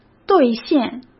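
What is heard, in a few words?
"兑现", Mandarin Chinese; hsk; chinese-flashcards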